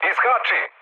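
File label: Serbian bailout mood low, speech; War Thunder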